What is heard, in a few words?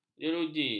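"jeruji", Malay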